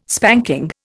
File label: "spanking", Indian